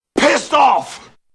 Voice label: pissed off